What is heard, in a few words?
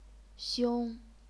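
"xiong", chinese pinyin, first tone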